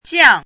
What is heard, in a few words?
"jiang4", pinyin